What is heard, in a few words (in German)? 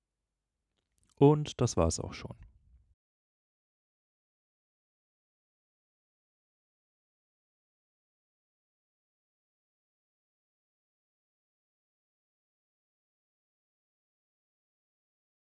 DT297 an Zoom H6